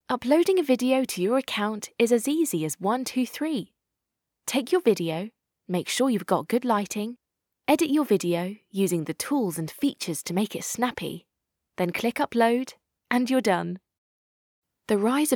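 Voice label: English British, female, voice over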